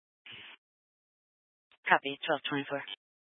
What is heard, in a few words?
Fire Department Dispatch; Grand Junction